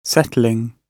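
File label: "settling", British English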